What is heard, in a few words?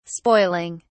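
"spoiling", British English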